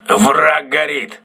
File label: voice message enemy on fire, crew ships russian